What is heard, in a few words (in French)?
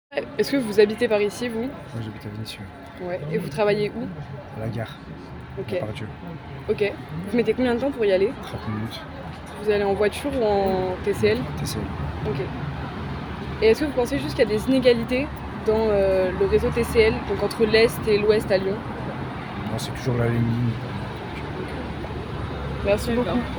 Homme tram; s'attaquer aux inégalités est-ouest l'ambition du nouveau réseau TCL